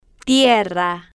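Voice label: "tierra", slow, spanish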